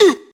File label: "uh", male